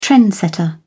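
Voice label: "trendsetter", British English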